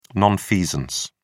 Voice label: "nonfeasance", en uk